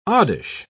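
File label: "oddish", English, British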